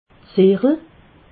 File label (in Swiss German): "sichel", alsace